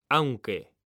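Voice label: "aunque", Spanish, male; mekanta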